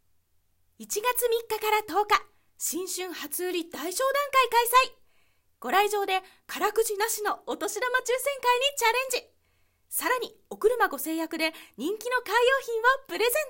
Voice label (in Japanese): 爽やか元気系